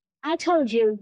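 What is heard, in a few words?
Voice Acting es, female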